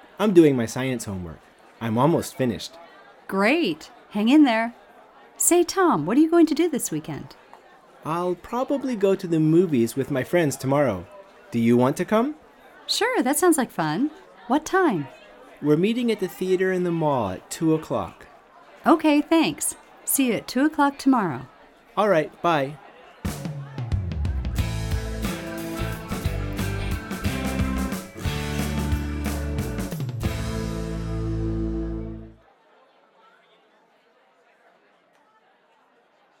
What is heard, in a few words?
Conversation, English; Unit Seven